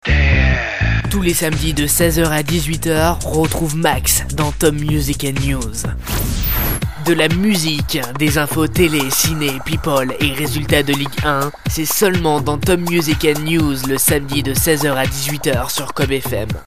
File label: pub top music and news; MAXCOBFM